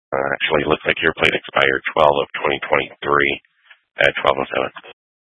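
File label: Troop 2A Dispatch, scanner audio recording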